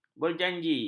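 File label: "berjanji", Malay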